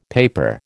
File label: "paper", American